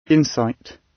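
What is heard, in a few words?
"insight", British English; Life, leisure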